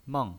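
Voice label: "meng", pinyin voice, Mandarin Chinese, fourth tone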